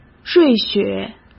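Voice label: "瑞雪", Mandarin Chinese; chinese flashcards; hsk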